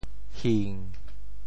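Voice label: "hing7", Teochew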